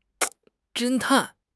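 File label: "侦探", Mandarin Chinese; HSK6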